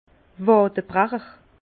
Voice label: "wadebrecher", Bas Rhin; vin

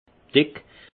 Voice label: "dick", alsace; vin; épais

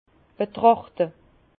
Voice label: "betrachte", Bas Rhin; vin; observer

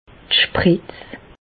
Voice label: "spretz", Bas Rhin; medecine; piqûre injection

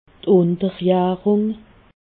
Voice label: "unterjarung", alsace

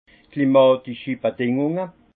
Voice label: "klimatische", alsace; vin; conditions climatiques

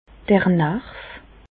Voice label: "d'r Narv", Bas Rhin; medecine; nerf

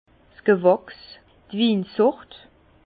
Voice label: "gewachs", Bas Rhin

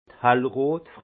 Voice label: "hellrot", alsace; vin; rouge pâle

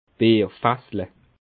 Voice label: "bierfassle", Bas Rhin; tonnelet de bière buveur

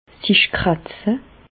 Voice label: "kratze", Bas Rhin; medecine; gratter se

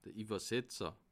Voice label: "iwwersetzer", alsace

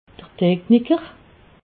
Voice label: "techniker", alsace

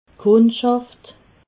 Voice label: "kundschaft", alsace

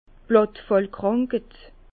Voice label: "blattfallkranked", Bas Rhin; vin; mildiou

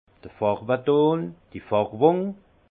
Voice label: "farweton", alsace; vin; teinte

